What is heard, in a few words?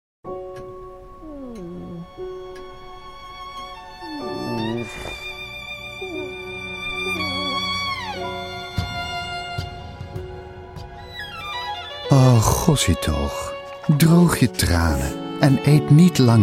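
Dutch commercial; GoLocalise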